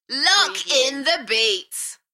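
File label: UK female, Enthusiastic, DJ vocals; beanstalk audio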